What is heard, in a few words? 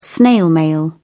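"snail mail", British English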